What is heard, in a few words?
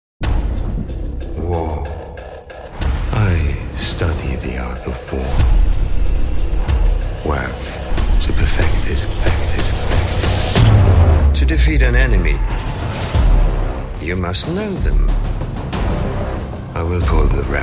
Character